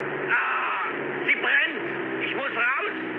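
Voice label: German on fire mood med, speech; War Thunder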